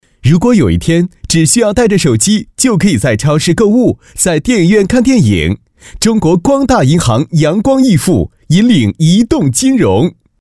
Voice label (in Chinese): 男 广告 活力, 国语男声配音; 光大银行